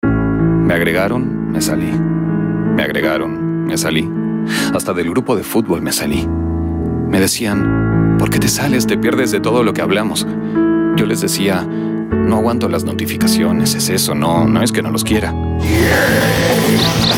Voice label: Voice Actor Neutral Spanish LATAM interactive